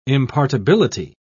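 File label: "impartibility", British English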